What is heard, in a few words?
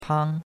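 "pang1" Mandarin Chinese syllable, first tone; Cours-de-Chinois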